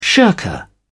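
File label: "shirker", British English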